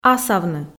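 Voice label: "асавны", Komi, female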